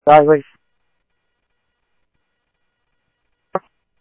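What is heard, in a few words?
police department, scanner audio trunk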